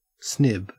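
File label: "snib", Australian English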